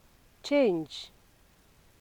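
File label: "change", Nigerian Pidgin, female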